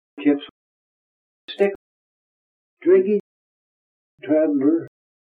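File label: kent appledore, male, trap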